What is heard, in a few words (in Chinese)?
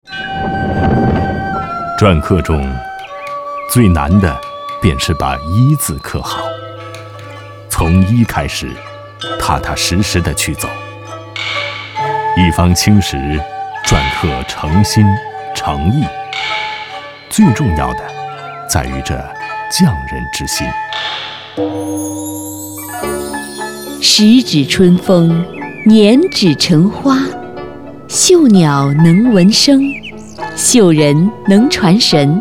公益广告; 央广; 中国精神